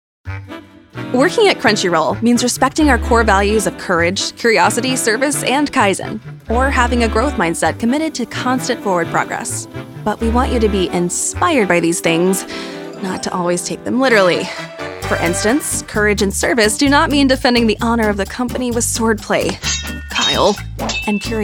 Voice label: E LEARNING